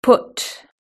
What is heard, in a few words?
"put", British English